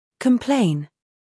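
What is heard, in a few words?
"scandal", American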